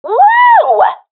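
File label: round winning, professional female; vo; agents; Source Model Packs; Counter Strike Model Pack; CS2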